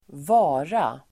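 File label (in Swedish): "vara", Swedish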